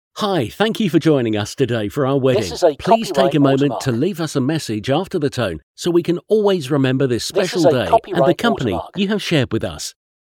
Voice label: Male Voice